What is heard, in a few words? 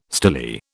"stilly", British